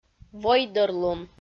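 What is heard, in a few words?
"войдӧрлун", Komi, female; audio dict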